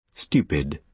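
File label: "stupid", British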